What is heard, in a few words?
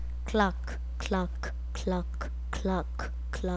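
"clock", spoken word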